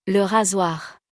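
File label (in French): "Le rasoir", French; vocabulaire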